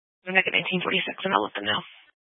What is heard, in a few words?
Police Dispatch; Phoenix Police; Simulcast C- Chandler